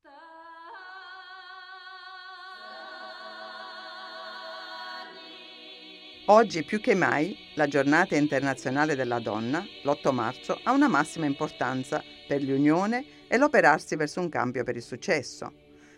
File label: Italian